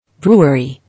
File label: "Brewery", English